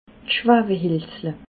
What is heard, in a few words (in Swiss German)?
"schwawelhelzle", alsace